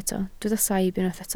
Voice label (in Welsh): "saib" Welsh